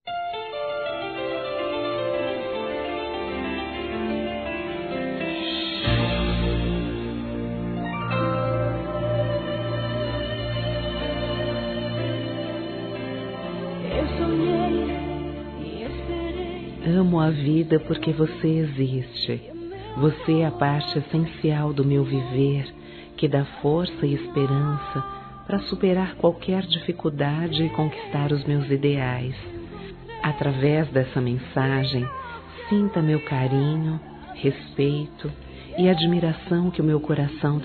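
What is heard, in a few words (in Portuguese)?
Namorados Com Declaracao, female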